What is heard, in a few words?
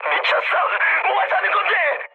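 Korean player killed ally mood high, speech; War Thunder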